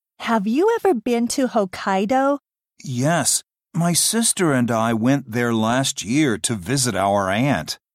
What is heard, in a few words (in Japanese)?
英文リスニング